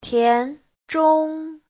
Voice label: "tian2zhong1", Chinese

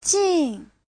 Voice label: "jin4", chinese

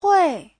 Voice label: "hui4", chinese

